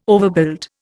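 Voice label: "overbuild", Indian English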